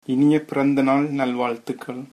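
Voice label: birthday, male, tamil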